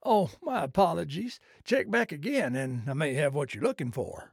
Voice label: trader male like sale declined

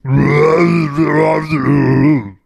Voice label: king hurt vo

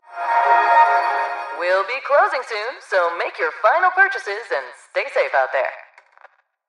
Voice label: trader announce closing; 商人杰恩（七日杀）

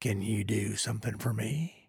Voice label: trader male neutral quest offer

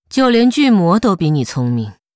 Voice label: taunt, zh; Sylvanas

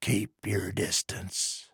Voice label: trader male neutral greeting

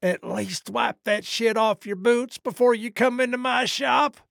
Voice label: trader male dislike greeting

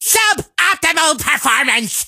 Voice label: death vo

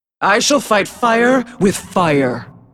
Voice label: Hero female demon hunter pissed

